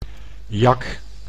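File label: "jak", Dutch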